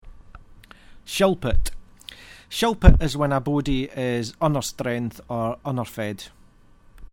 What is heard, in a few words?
"shilpit", Scots